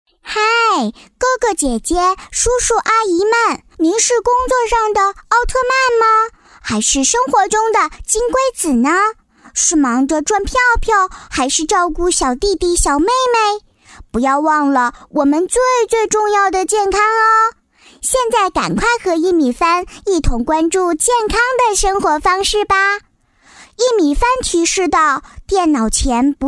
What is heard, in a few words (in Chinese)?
女-童声【可爱的女孩3-4岁】